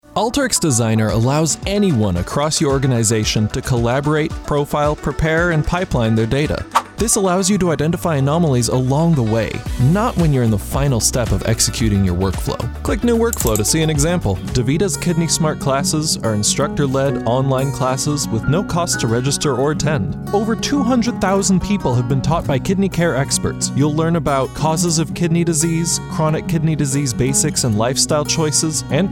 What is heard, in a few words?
Corporate, Character and Commercial voiceover